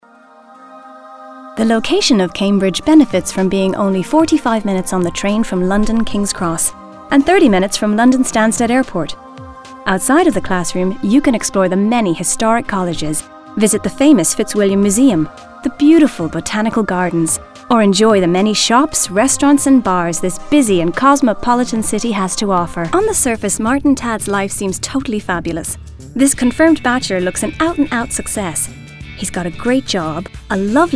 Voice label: Narrative